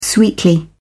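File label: "sweetly", British English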